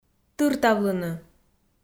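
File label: "тыртавлыны", Komi, female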